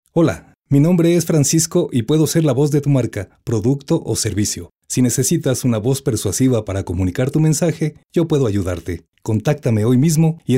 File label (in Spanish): Locutor